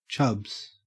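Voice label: "chubs", English, Australian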